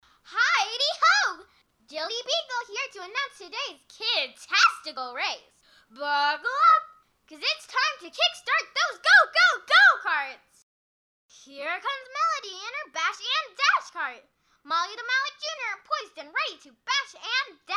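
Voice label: Youth, vo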